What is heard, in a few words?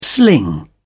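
"sling", British English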